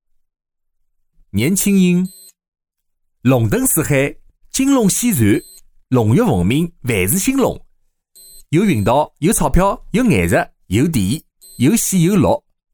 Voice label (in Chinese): 上海方言 男 上海话 年轻音年老音; 方言配音